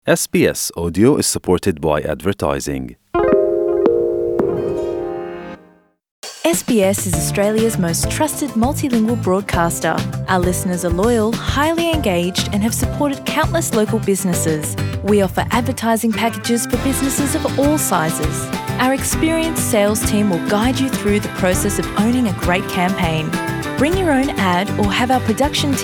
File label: english, sbs-slovak